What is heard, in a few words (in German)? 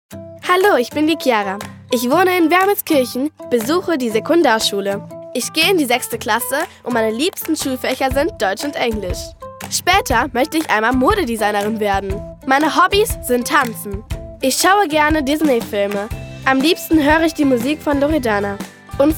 Selbstvorstellung DE